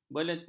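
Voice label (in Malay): "beletir", Malay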